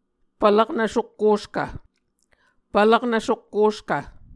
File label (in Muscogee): "pvlaknv sokkoskv", Muscogee